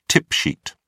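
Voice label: "tip sheet", British English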